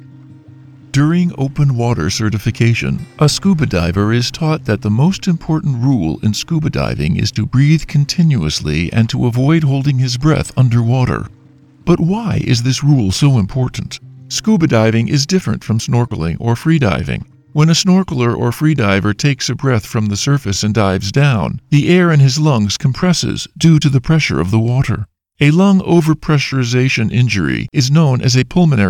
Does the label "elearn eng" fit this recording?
Yes